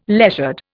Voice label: "leisured", Indian